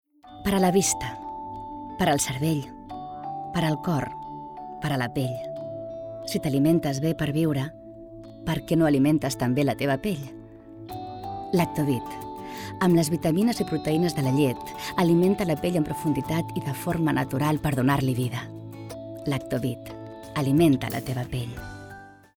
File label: female catalan comercial; ideasonora